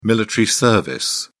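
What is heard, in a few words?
"military service", British English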